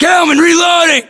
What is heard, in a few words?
US inform reloading, battlechatter, english